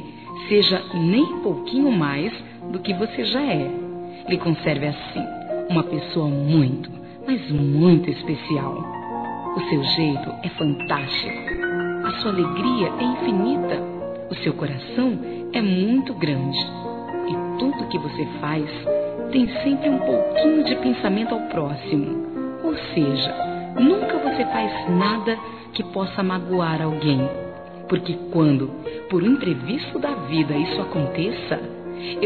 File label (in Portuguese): ANIV. GERAL F; Aniversários; Aniversários diversos; fem